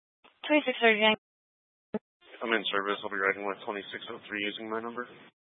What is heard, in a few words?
Mesa County Sheriff Dispatch